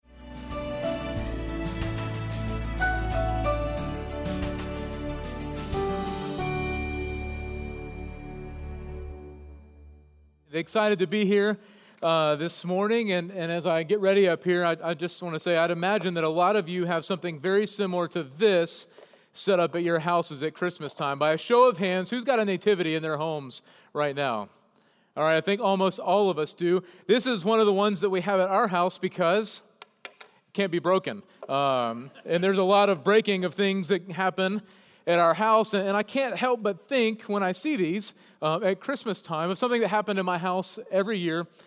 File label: Sermon English